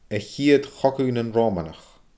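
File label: "a chiad chogadh nan Roomanach", Scottish Gaelic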